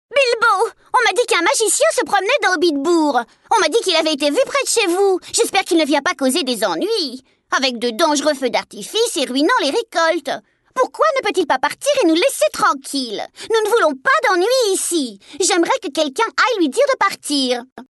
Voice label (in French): Femme hysterique